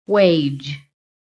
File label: "WAGE", PHONETIC